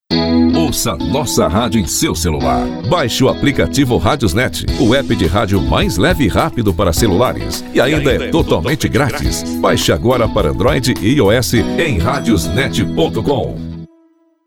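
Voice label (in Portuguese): Ouça a nossa radio em seu celular masculino; RadiosNet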